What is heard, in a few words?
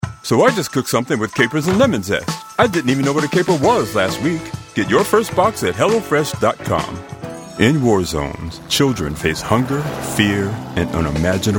Voice Commercial